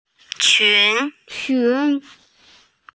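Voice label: "qun2", Mandarin Chinese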